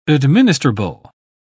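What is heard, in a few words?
"administrable", British English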